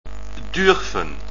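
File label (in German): "dürfen", German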